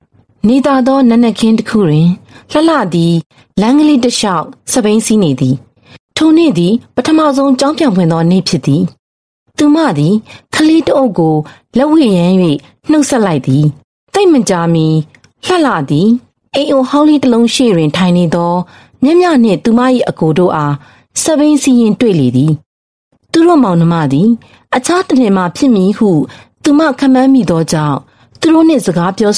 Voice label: Burmese Female Narration